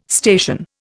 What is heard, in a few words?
"station", Indian